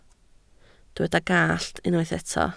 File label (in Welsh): "gallt", Welsh